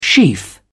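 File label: "sheaf", British English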